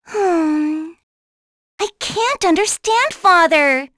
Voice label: vox, en; kingsraid

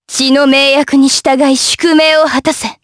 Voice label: Vox Skill jp; Lewsia; kings raid

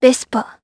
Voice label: Vox, jp; Tanya; Vespa; kings raid

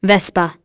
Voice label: Vox, en; kingsraid

